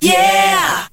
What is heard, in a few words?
"yeah", group of women; ooh ahh